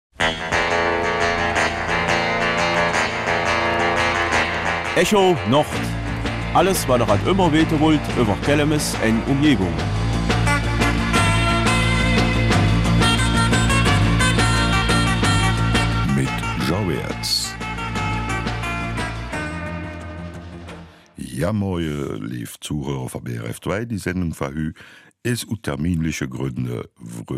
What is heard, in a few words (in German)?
Kelmiser Mundart